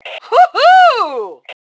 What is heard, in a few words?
Woohoo, Female; T2 Female 02